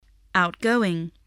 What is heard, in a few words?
"outgoing", English